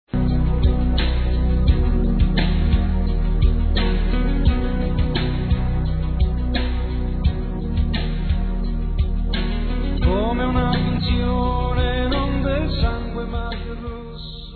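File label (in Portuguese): Está faltando você, feminino; Telemensagens; Românticas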